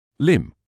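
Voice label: "limb", British English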